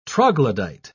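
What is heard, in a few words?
"troglodyte", English pronunciation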